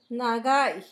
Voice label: quinto, Zapoteco; Números; San Pedro Mártir; INALI

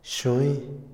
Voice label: noche, Otomí, hombre; Tiempo; Vocabulary; INALI

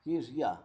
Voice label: "zacate", Zapoteco, Magdalena Ocotlan; Comestibles; INALI; Haspelmath

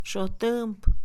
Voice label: bellota, Mixe, Mujer; INALI

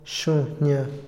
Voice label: "paz", Otomí, hombre; INALI

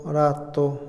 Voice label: seis, Otomí, hombre; INALI

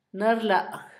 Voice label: diarrea, Zapoteco, Asunción Ocotlan; Enfermedades; INALI; Haspelmath

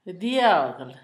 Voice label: oreja, Zapoteco, Asunción Ocotlan; Cuerpo; INALI; Haspelmath

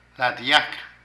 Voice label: Corteza, Zapoteco de Petapa; Agricultura; Vocabulary

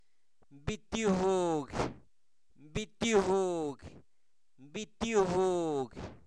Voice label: bule, Zapoteco, santo domingo albarradas; objetos inanimados

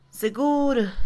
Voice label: seguro, Mixe, Mujer; INALI

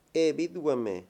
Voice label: "fantasma", Mayo; Religón y creencias; INALI

